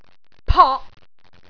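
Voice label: "pok6", Cantonese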